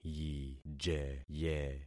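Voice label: "yijeye", concatenated syllables; Affixing experiment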